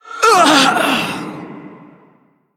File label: Female Elemental Death